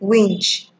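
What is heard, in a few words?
"winch", Nigerian Pidgin, female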